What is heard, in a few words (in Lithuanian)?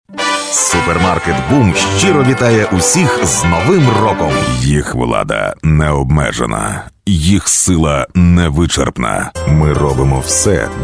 Ukrainieciu balsas, vyras